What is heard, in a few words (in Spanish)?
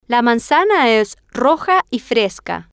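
la manzana es roja y fresca, Spanish, female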